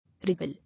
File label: "Wriggle", English